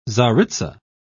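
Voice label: "czaritza", British English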